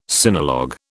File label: "sinologue", British